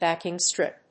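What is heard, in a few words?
"backing strip", English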